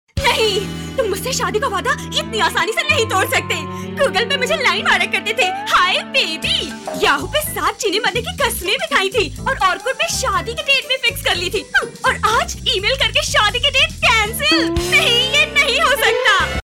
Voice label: female voice Hindi character